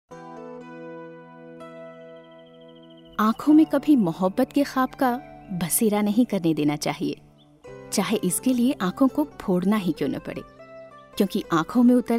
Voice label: AD HINDI, F HINDI